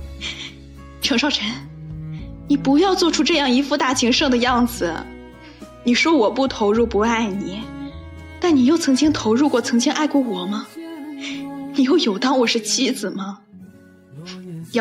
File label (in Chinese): 现代言情小说剧; 沈安若